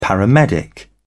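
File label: "paramedic", British English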